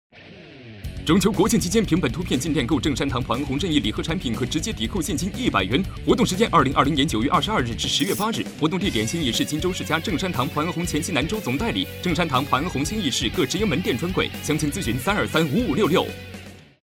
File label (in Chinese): 男 广告 促销; 普安红促销15秒版